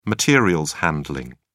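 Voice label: "materials handling", British English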